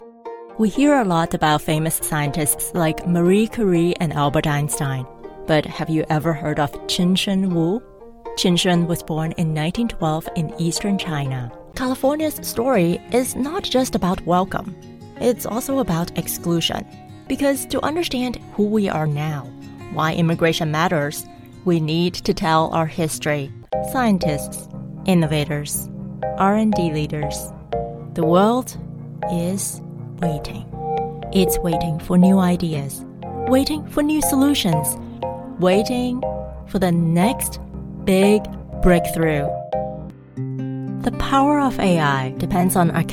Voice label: Corporate Narration